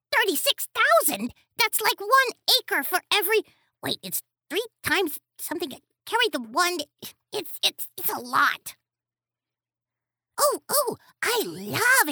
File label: english american animation